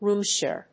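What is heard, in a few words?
"room share", English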